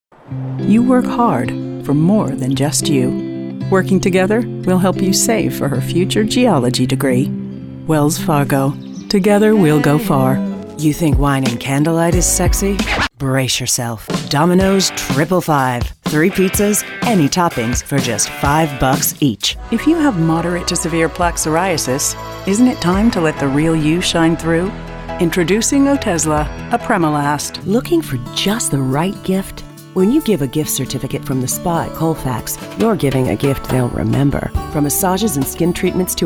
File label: Commercial